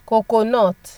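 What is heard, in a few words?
"coconut", Nigerian Pidgin, female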